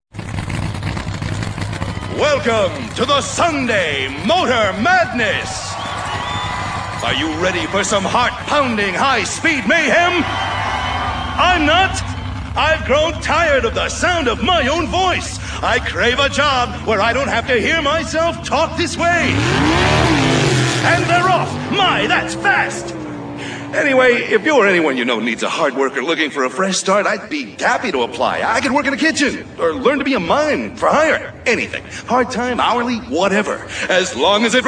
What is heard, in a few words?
Race Announcer